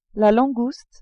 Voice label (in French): "langouste", french